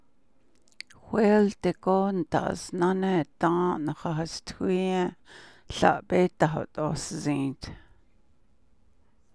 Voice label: navajo